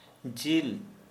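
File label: "meat", Santali, male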